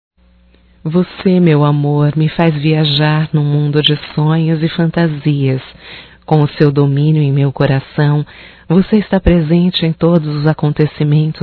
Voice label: Romântico Feminino; Românticas

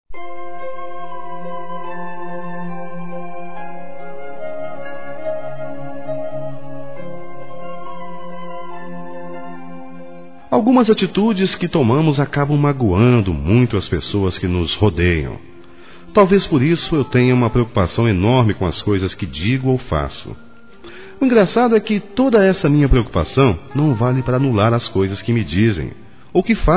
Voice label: Estou Triste com Você Masculino, Telemensagens; Telemensagens 2017

